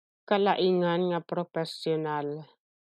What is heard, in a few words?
Ilocano